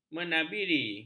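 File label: "menabiri", Malay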